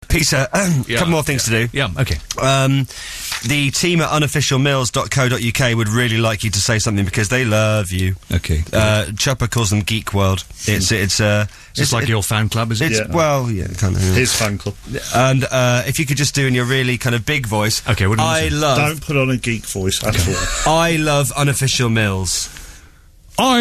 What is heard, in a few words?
voiceover mention